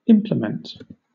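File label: "implement", British English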